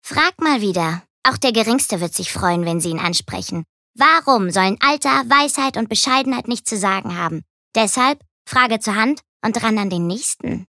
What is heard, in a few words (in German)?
Charge Comic